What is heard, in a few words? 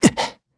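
Landing, Korean; voices; heroes; Siegfried; kings raid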